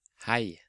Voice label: "Hei", Norwegian

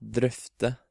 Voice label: "Drøfte", Norwegian

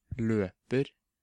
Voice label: "Løper" substantiv, Norwegian

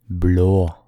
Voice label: "Blå", Norwegian

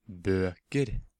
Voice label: "Bøker", Norwegian

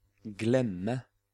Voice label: "Glemme", Norwegian

